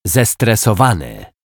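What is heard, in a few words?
"zestresowany", Polish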